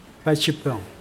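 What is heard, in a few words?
"answer", noun, Mokilese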